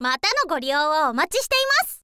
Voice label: info girl